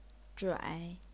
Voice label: "zhuai3", chinese pinyin